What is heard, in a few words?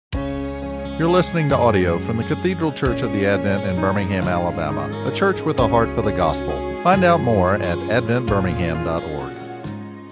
Sermon